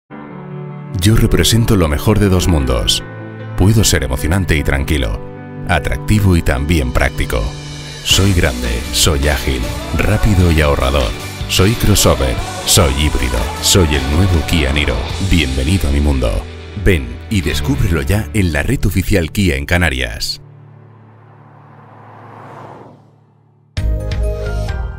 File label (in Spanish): locutor kia niro